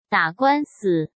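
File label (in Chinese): "打官司", Mandarin Chinese; chinese-flashcards; hsk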